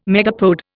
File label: "megapode", Indian